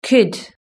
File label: "could", british english